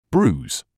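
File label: "bruise", British English; brooz; bruːz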